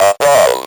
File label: "ABOVE", Speak & Spell